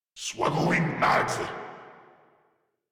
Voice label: Reload, Grineer Male

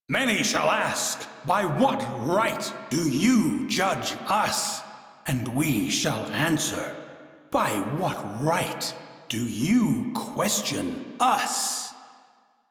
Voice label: Crew Idle, Male; Dialog; en; Warframe; Railjack Crew; Arbiters Hexis

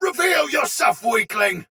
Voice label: Sight Lost Shout Soldier Sword Agent Male, Battle; Dialog; Barks; Melee; Sinecure

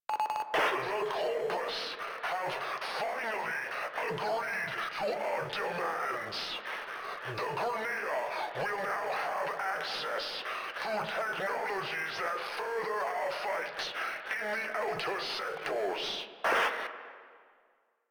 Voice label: Announcements, Radio Interceptions; Grineer Announcer

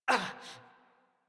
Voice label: Generic Land Pain Operator Voice en; Operator Mission

